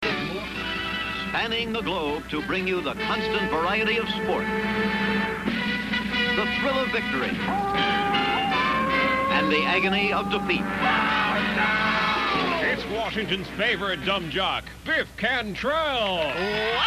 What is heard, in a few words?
promo